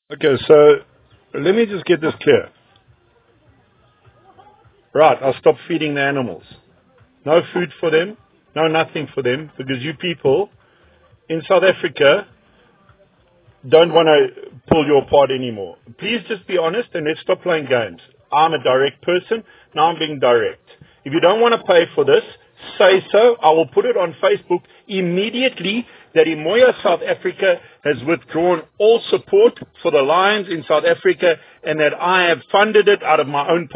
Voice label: Voice Note